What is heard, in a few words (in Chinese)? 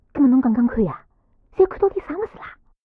偷听对话; c02 6偷听对话 李氏